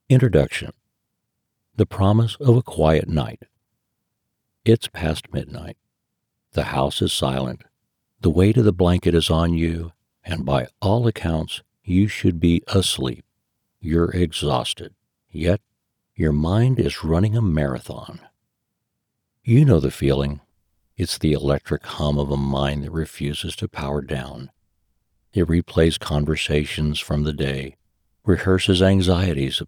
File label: Non-Fiction Meditations; Voice-Over Actor